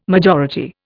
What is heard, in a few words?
"majority", Indian